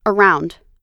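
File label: "around", American English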